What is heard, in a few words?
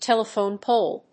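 "telephone pole", English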